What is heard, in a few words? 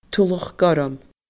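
"Tulach Gorm", Scottish Gaelic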